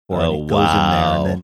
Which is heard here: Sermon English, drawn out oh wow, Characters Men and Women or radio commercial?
drawn out oh wow